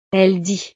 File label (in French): "elle dit", frances